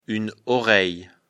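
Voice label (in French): "oreille", French; afrikalan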